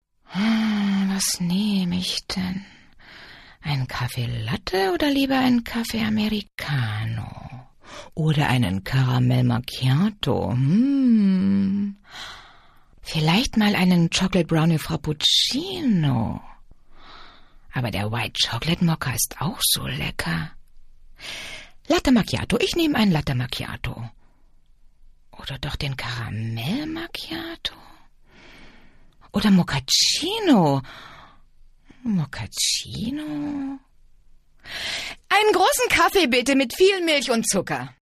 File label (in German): szene, sprecher